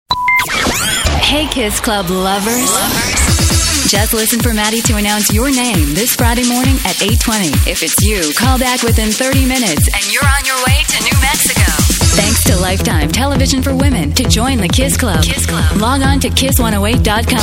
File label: imaging